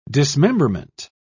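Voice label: "dismemberment", English, British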